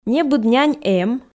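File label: "небыд нянь эм", Komi, female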